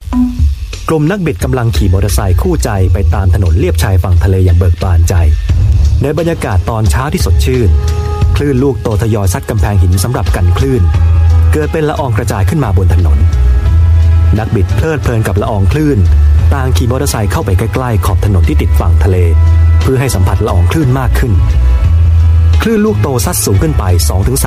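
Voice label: Thai M, male; Shell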